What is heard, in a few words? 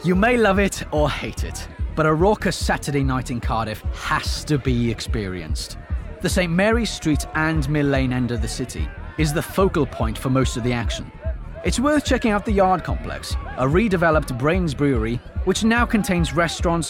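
Welsh English M Voicereel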